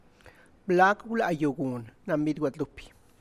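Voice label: How much did you pay for your Virgin de Guadalupe, Tlacochahuaya Zapotec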